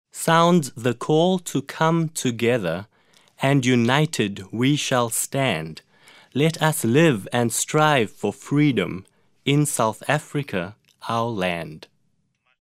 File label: english lyrics pronunciation